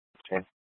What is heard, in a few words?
Gilbert Police Dispatch; 1 Dispatch